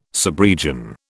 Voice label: "subregion", British

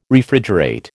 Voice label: "Refrigerate", American

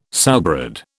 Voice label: "sowbread", British

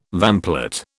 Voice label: "vamplate", British

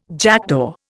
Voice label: "jackdaw", Indian

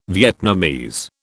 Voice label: "Vietnamese", British

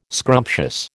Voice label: "scrumptious", American

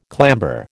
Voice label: "clamber", American

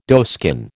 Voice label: "doeskin", American

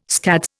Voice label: "scads", Indian

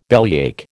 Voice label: "bellyache", American

